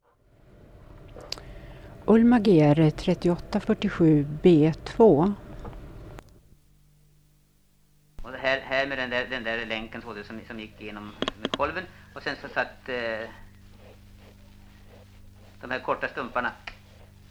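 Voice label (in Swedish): Edsbro Uppland, dialekter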